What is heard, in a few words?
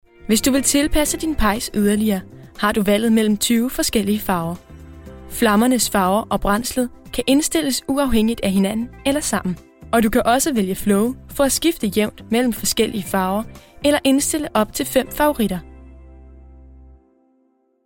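Danish eLearning; GoLocalise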